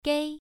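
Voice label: "gei", Mandarin Chinese, first tone, pronunciation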